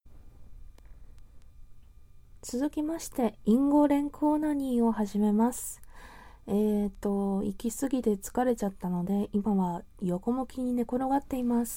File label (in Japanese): 淫語連呼オナニー